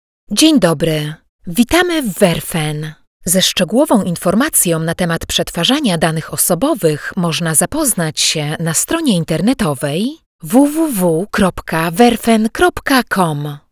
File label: IVR, female polish voice